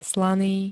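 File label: "slaný", Slovak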